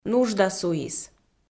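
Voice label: "нужда суис", Komi, female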